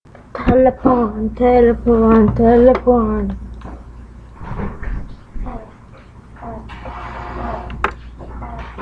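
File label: "telephone", spoken word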